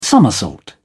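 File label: "somersault", British English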